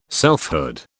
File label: "selfhood", British